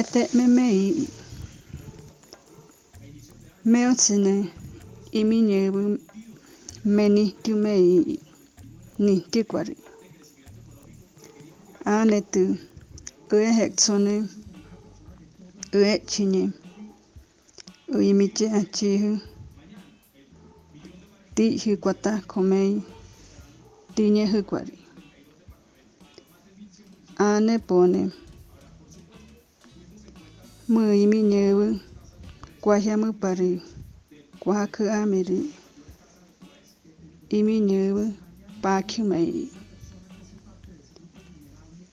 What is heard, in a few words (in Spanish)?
Lengua Bora